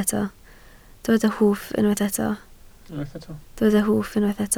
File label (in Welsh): "hwff", Welsh